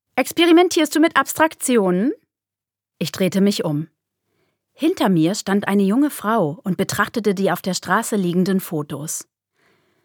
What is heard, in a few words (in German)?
Hoerspiel